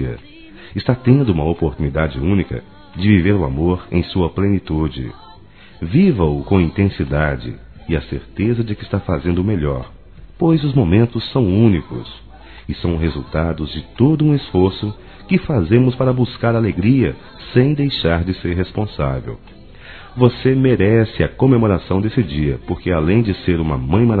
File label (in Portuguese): AMIGO P MULHER MÃE M, Dia das Mães, Masc; Telemensagens 2017